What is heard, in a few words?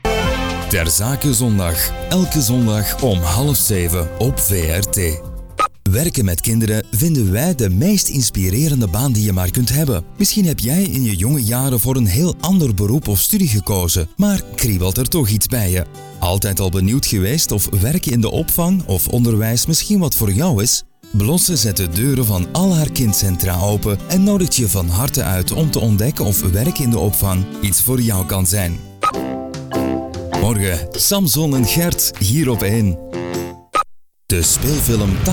flemish commercial